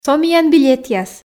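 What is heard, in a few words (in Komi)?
"со миян билетъяс", Komi, female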